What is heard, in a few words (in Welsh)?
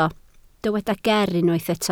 "ger" Welsh